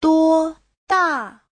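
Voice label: "duō dà", Mandarin Chinese; Giáo trình Hán ngữ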